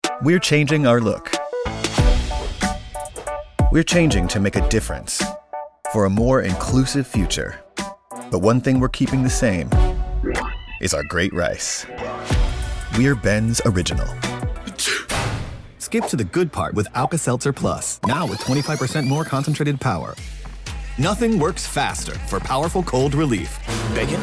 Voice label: Voiceover Reel